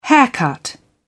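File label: "haircut", British English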